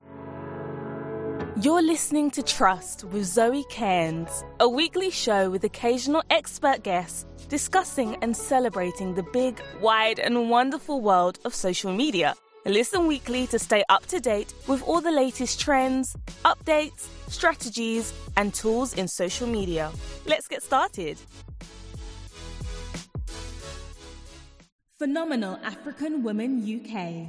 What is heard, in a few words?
english british podcasts